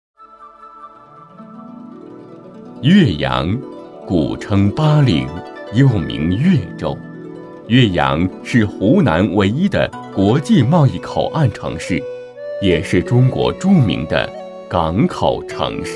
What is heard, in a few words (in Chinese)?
男国 宣传片 城市 成熟; 岳阳